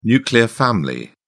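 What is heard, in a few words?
"nuclear family", British English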